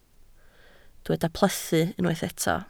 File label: "plethu", Welsh